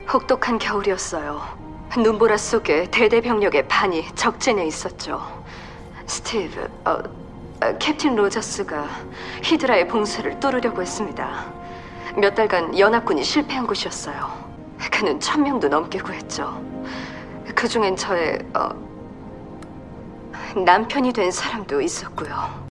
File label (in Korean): 외화더빙톤 30대; 캡틴아메리카 윈터솔져; 페기